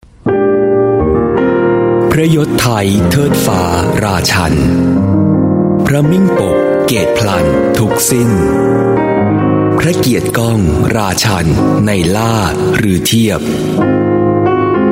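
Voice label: Thai Male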